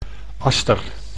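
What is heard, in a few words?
"aster", Dutch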